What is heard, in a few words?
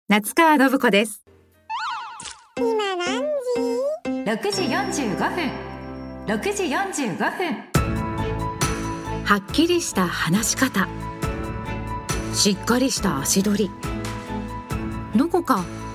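female tv program, English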